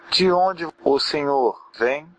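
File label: where from, Brazilian Portuguese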